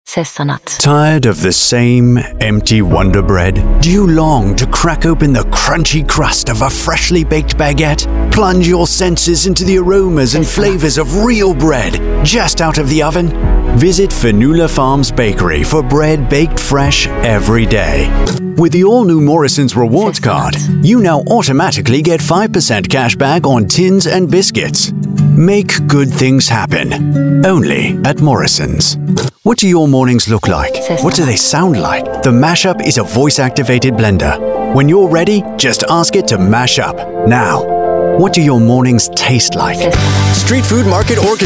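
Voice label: English British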